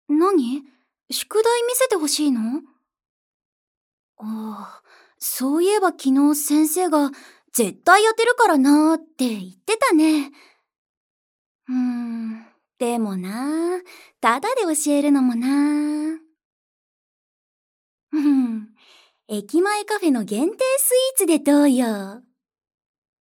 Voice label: 女子高生

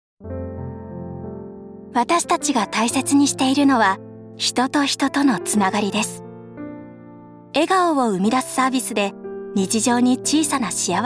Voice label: 高音優しく丁寧CMナレ; 「人に寄り添う」

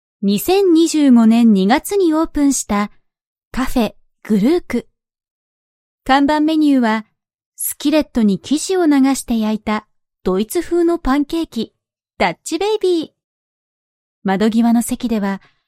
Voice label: ナレーション 穏やか

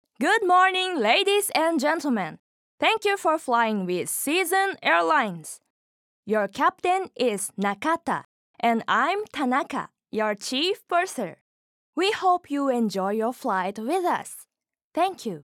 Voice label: ナレーション

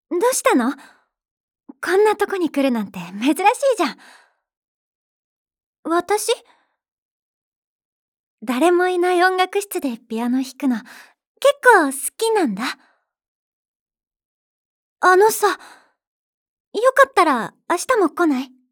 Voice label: 高校生

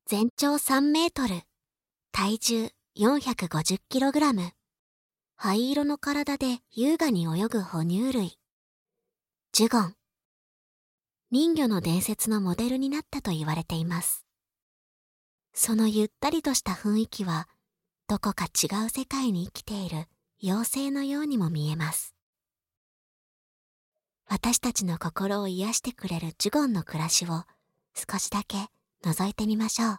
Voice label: ナレーション

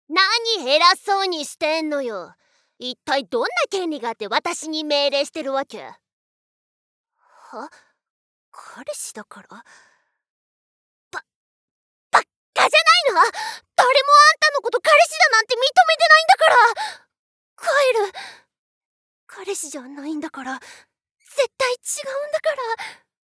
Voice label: ツンデレ

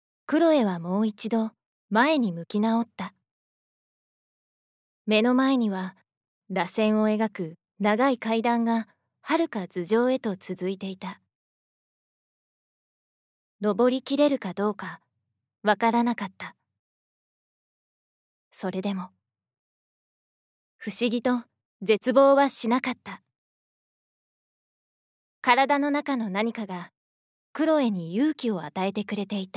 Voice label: 朗読

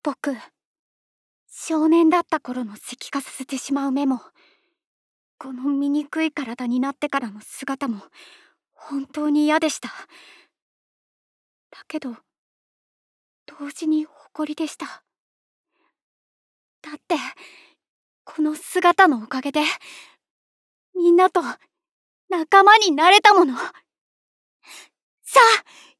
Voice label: 少年

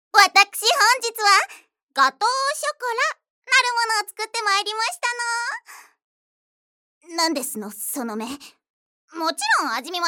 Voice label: ロリお嬢様

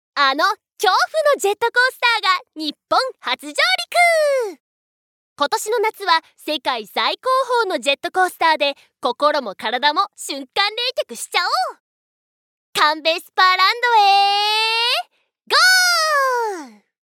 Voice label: ナレーション; ナレーション１

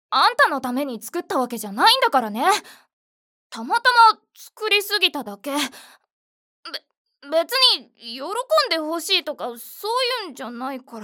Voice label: ツンデレ

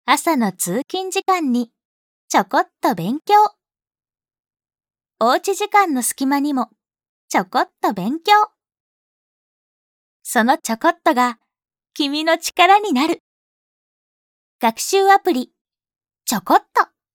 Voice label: 明るめ

